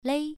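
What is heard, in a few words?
"lei", Mandarin Chinese, first tone, pronunciation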